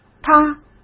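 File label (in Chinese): "它", Mandarin Chinese; chinese flashcards; hsk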